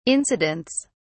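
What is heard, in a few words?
"incidents", British English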